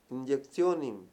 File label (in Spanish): "inyección", Mayo; Mundo moderno